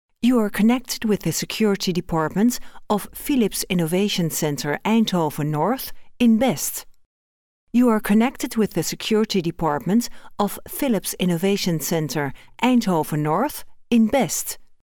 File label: IVR; PHILIPS